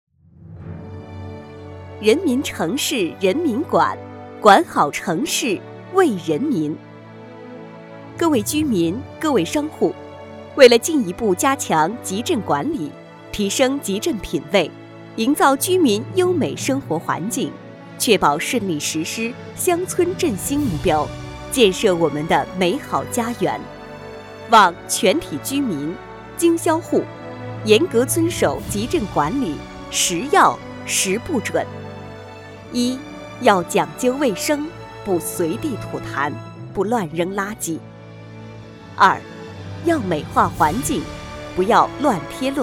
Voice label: 党建 城市管理 宣传片 配音

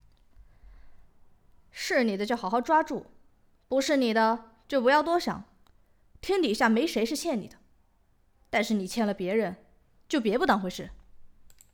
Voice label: 正太音

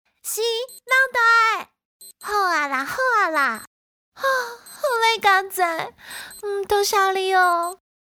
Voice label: 喪屍 女學生

